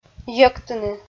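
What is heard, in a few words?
"йӧктыны", Komi, female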